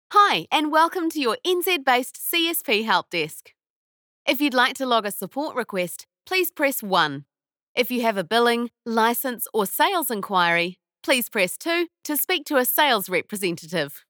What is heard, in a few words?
NZ Voice Phone